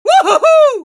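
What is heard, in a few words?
Scout cheers, Spanish; "¡Yujujuuu!"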